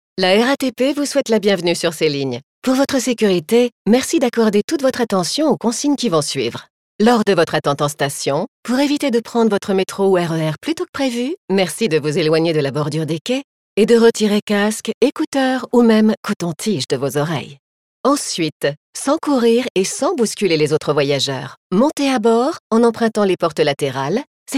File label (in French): Voix Off FRA; RATP; Version Longue